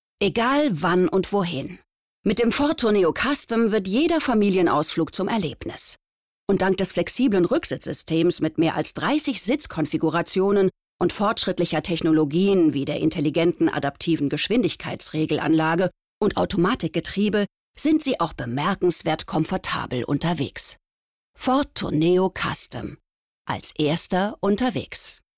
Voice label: Commercial Tourneo Custom; Ford; LOFT VOICES

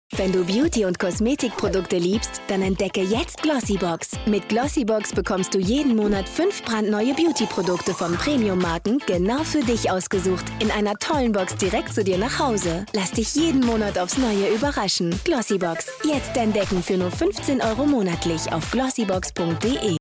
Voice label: tv spot; glossybox

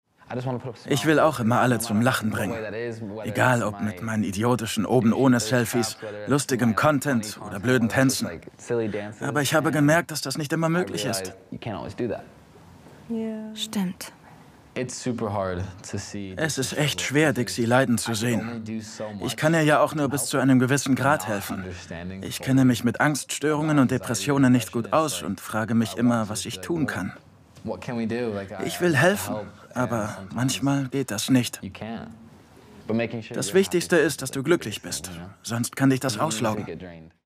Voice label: Voice Over Reality Show; LOFT VOICES

